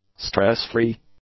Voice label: "stress-free", English; adjectives

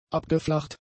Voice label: "abgeflacht", German; adjectives

